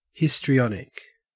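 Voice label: "histrionic", Australian English; pronunciations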